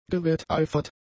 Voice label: "gewetteifert", German; adjectives